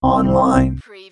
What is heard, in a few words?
Robot voice, "online"; lynne publishing